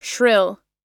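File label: "shrill", American English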